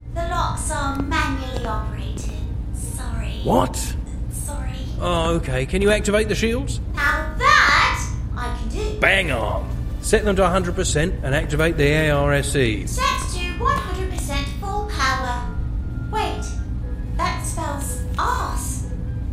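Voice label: British RP Comedy AI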